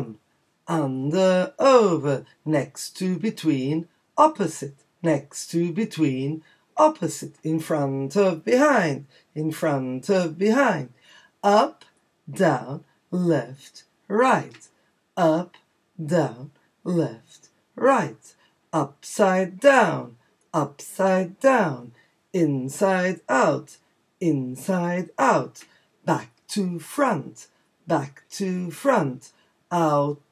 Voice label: preposition chant fast